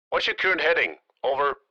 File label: Military radio voice question whats your current heading